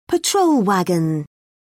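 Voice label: "patrol wagon", British English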